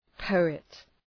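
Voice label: "poet", English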